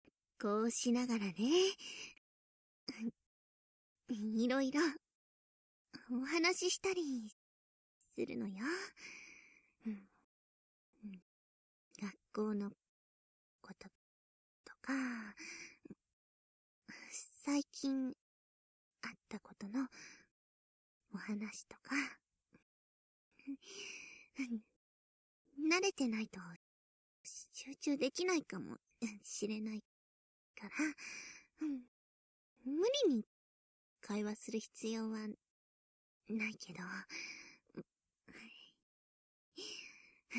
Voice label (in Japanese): 膝枕耳かき(効果音なし・立体版); 真緒、修行の日々。